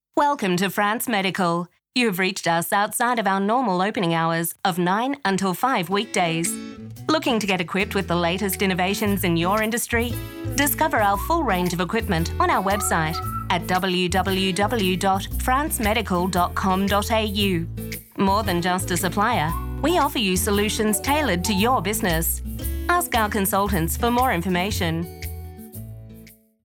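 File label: Australian Female Voice Talent Phone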